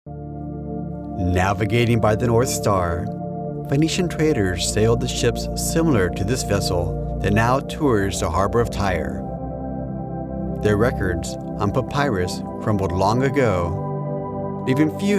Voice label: narration documentary